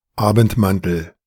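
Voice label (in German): "Abendmantel", German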